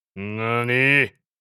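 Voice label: "What nani", anime villain character, japanese voice over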